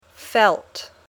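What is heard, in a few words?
"felt", English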